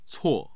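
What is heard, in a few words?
"cuo", Mandarin Chinese, tone 4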